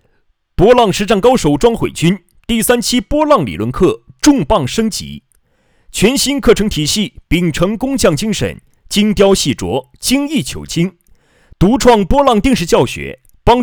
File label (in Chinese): 宣传片配音